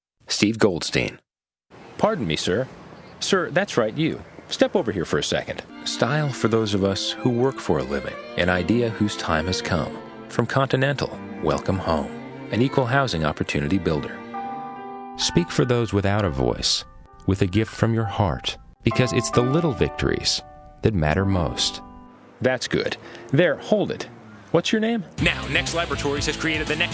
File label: Commercial, Male voices